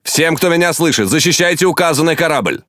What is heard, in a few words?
Speech Quick Commands Ally Ship, RU; World Of Warships